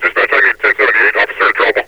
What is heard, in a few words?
dispatch i need 10-78, metropolice vo; Half Life Alyx